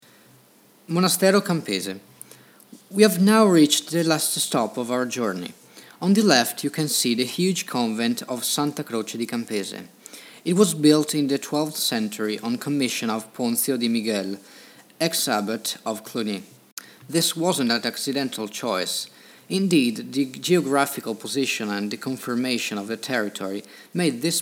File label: inglese; fiume; monastero campese